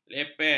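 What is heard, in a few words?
"leper", Malay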